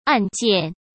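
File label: "按键", Mandarin Chinese; hsk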